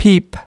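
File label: "peep", English word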